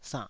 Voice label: "sannh3", taiwanese syllable